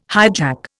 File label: "hijack", Indian